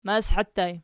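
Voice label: "mashi" ergative plural, Archi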